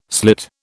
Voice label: "slit", British

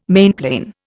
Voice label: "mainplane", Indian

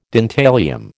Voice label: "dentalium", American

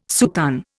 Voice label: "soutane", Indian

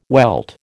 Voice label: "welt", American

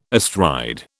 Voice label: "astride", British

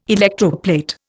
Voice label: "electroplate", Indian

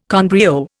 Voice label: "con brio", Indian

